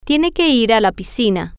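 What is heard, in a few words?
She has to go to the pool, Spanish, female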